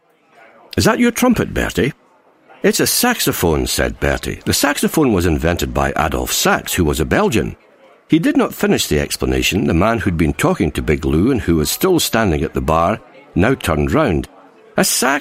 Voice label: Narration